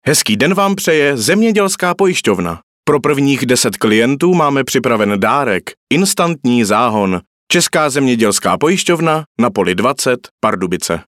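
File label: locutor checo male czech voicetalent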